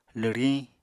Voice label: "lwriñ", Apatani